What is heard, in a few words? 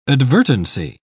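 "advertency", British English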